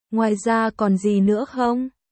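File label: Vietnamese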